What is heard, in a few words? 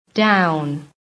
"DOWN", american